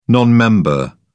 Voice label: "non member", en uk